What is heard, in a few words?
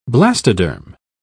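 "blastoderm", British English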